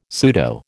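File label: "pseudo", American English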